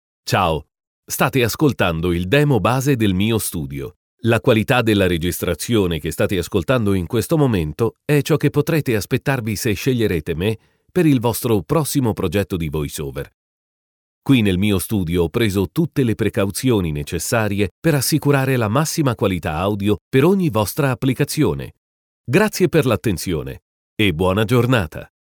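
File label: italian male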